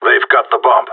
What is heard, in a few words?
enemy picked bomb, radio; GSG9